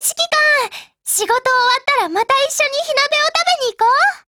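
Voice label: LOADING, Japanese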